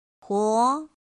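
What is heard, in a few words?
"pó", Mandarin Chinese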